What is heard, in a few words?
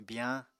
"biyañ", Apatani